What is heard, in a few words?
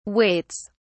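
"waits", British English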